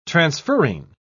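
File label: "transferrin", British English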